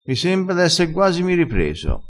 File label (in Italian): "esse guasimi", vocabolario dialettale